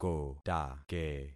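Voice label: "kotake", concatenated syllables; Affixing experiment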